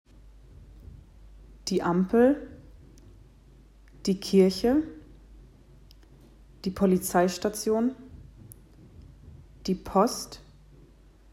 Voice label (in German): Vokabeln normal; grüne piste